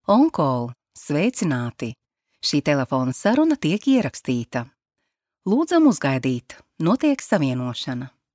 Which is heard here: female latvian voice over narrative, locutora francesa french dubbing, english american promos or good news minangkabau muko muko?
female latvian voice over narrative